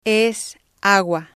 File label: "es agua", spanish